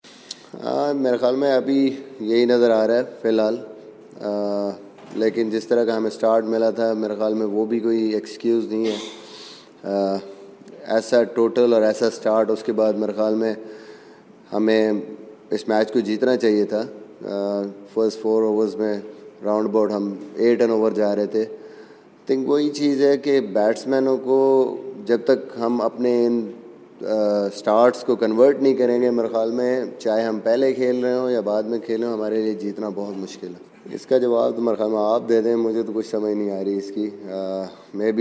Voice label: media conference urdu; misbah ul haq

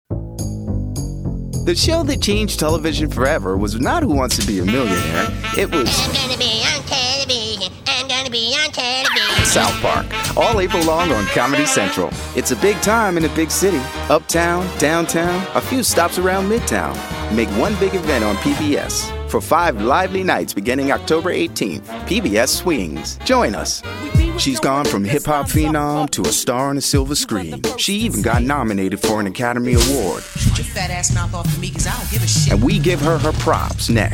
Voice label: promos

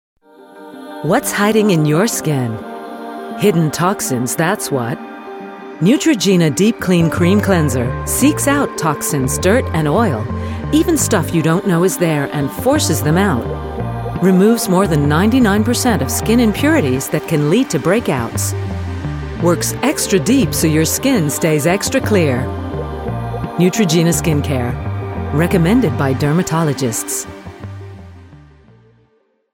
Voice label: advert; neutrogena

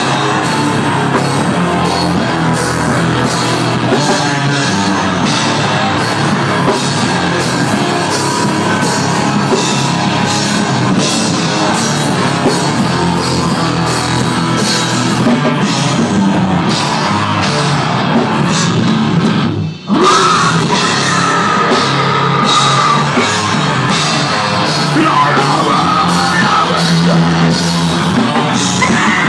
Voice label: french

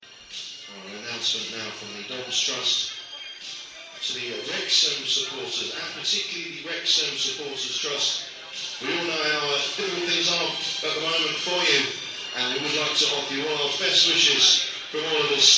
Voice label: half time announcement; wimbledon

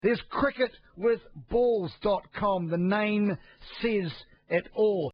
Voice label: radio sport slow plug

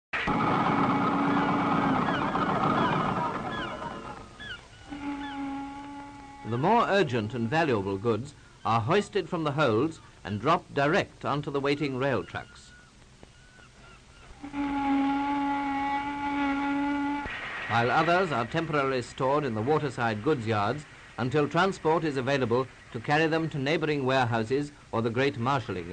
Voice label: upper class pronounciation

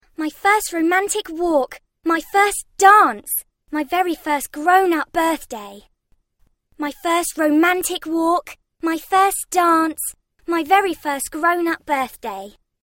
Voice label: voiceover artist

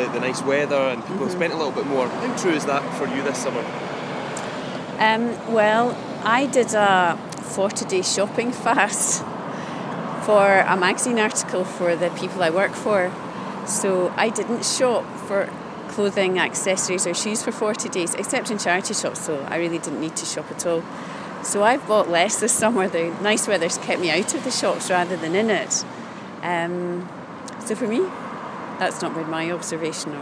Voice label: high street vox

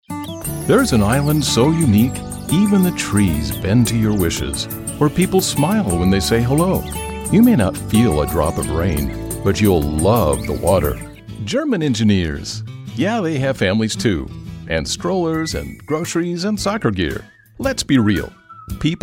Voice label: commercial

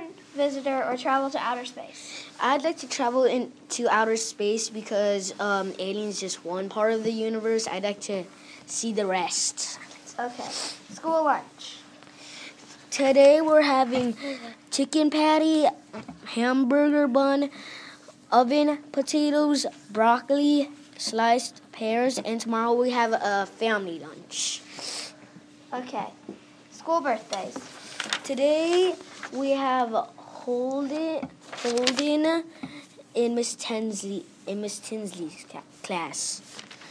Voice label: morning announcements

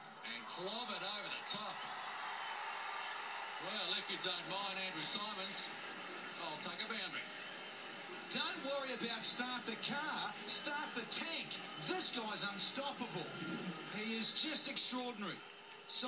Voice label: cricket commentary

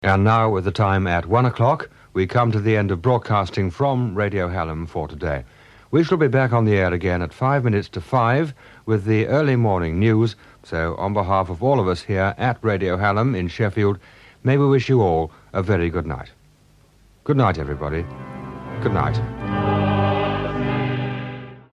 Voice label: radio closedown; Radio Hallam

